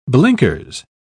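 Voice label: "blinkers", British English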